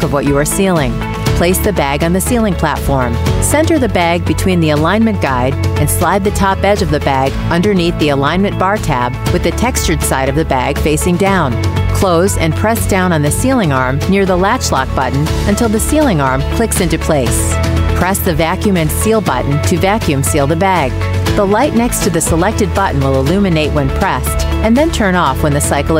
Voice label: english american voice over female elearning